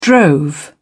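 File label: "drove", British English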